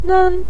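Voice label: "nen", Mandarin Chinese neutral tone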